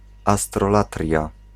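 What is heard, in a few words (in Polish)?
"astrolatria", Polish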